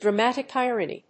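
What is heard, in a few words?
"dramatic irony", English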